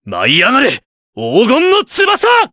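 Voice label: Vox Skill, Japanese; Clause ice; kingsraid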